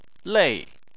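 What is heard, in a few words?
"lei", Chinese, fourth tone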